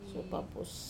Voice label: "suopapus", foam, wamesa